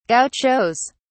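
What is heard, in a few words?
"gauchos", British English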